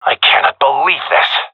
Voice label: scared, seal diver; Source Model Packs; Counter Strike Model Pack; CS2